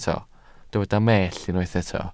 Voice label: "mellt", welsh

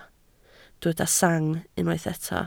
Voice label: "sang", Welsh

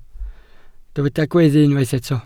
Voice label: "gweddu", Welsh

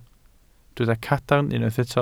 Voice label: "cadarn", Welsh

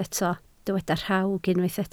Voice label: "rhawg", Welsh

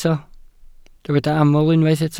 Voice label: "aml", Welsh

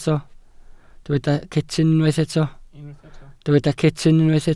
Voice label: "cetyn", Welsh

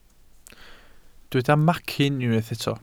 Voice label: "macyn", welsh

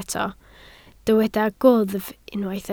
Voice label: "gwddf", Welsh

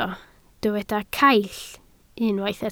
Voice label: "caill", Welsh

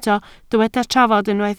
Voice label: "trafod", Welsh

